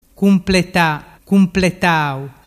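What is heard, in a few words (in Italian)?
"cumpletatau", Vernazzese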